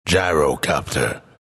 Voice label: announcer pick gyrocopter; dlc bastion